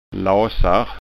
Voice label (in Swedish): "lasar", skanskaord